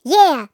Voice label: Yeah Mouse Squirrel Cartoon

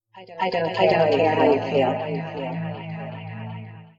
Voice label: A Sympathetic Voice

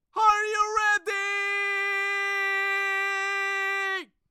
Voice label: Clean Male Shout Are You Readyyy

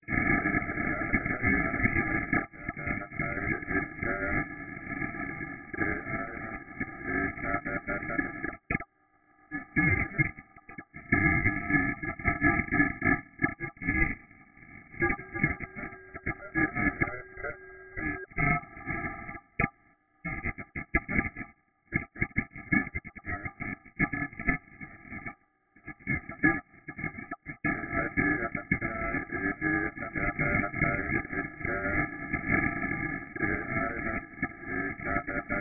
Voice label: Radio Garble More Garbled Incomprehensible

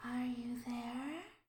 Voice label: Ghostly Are You There

